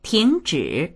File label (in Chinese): "ting2zhi3", voices; 停止; tíngzhǐ; 停止（する）